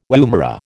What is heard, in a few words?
"woomera", American